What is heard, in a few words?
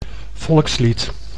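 "volkslied", Dutch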